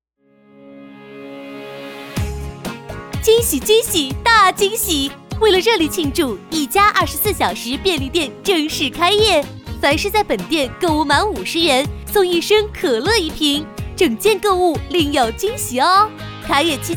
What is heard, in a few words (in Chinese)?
促销 超市促销广告